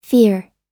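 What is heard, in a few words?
"fear", English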